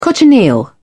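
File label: "cochineal", British English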